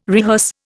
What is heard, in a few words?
"rehearse", Indian